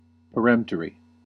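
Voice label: "peremptory", US English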